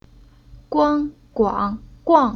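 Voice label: "Guang", Chinese syllable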